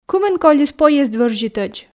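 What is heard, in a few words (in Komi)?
кымын колис поезд вӧрзьытӧдз, Komi, female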